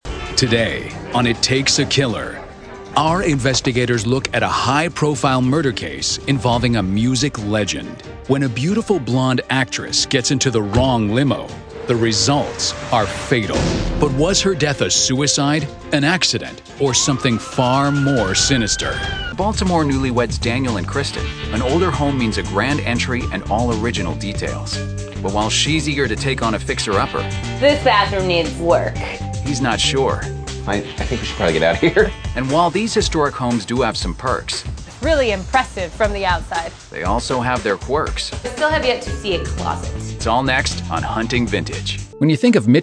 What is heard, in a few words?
narration men